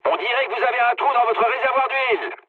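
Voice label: damaged oil, mood medium, French; War Thunder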